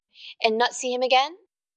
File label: Voice Acting es F